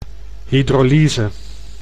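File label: "hydrolyse", Dutch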